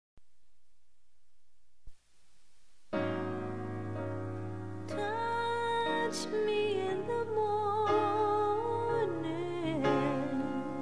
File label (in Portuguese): RECONCILIACAO FAMILIAR FEM; Telemensagens; ROM RECONCILIACAO FAMILIAR